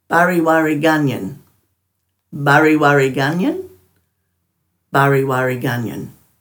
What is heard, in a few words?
"bariwariganyan", Dhurga